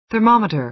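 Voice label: "thermometer", American English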